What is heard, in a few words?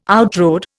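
"outrode", Indian English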